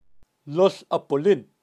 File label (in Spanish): "lol" hapulin, kaqchikel, pronunciacion de animales